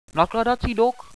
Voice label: loading dock, radio bot